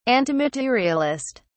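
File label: "antimaterialist", British English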